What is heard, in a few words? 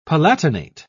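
"palatinate", British English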